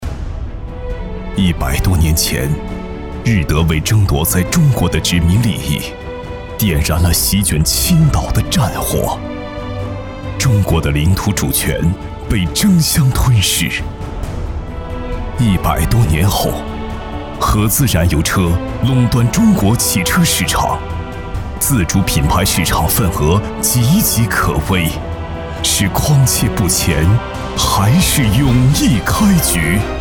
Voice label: 男, 力度历史感, 历史

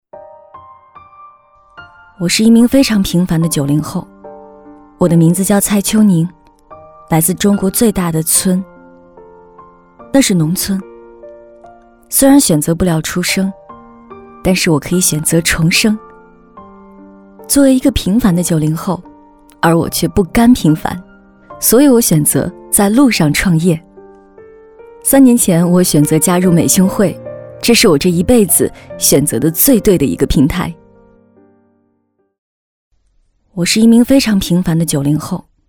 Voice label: 女 专题 自述

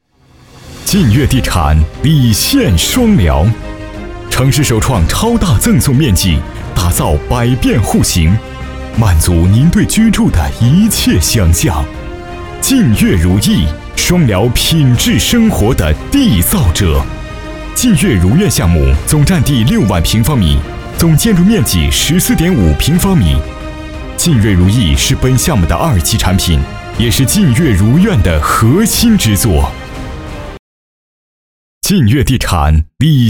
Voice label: 男 地产广告

